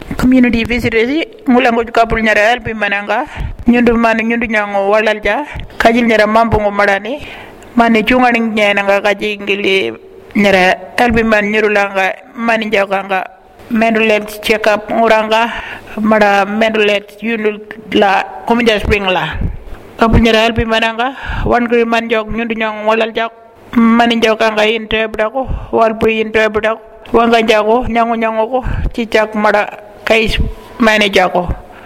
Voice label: Warlpiri